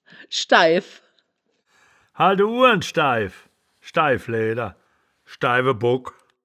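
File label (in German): "schdaiw", wittlicher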